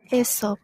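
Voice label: "Aesop", Japanese, female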